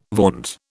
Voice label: "vaunt", British